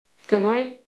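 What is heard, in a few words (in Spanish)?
Voice Acting es F, Spanish, female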